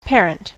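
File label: "parent", US English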